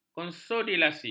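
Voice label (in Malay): "konsolidasi", Malay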